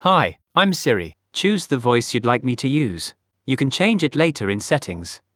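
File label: British English; iOS 26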